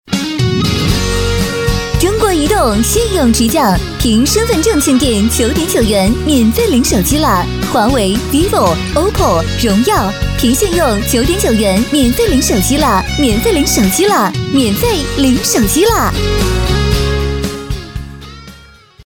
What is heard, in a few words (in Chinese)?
促销 中国移动